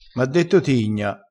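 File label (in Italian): "tigna", vocabolario dialettale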